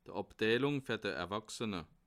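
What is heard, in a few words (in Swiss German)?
"erwaksene", Bas Rhin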